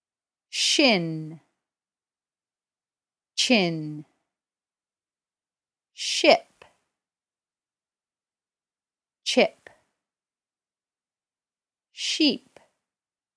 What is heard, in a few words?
Pronunciation Practice